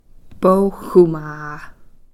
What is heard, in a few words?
"poxumaa", Cow Creek